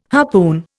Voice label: "harpoon", Indian